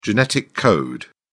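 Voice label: "genetic code", British English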